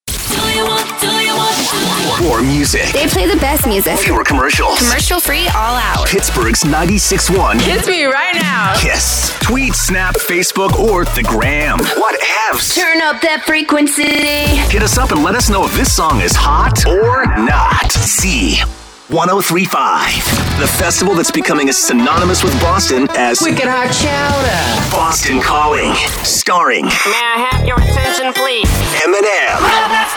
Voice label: radio men chr; radio imaging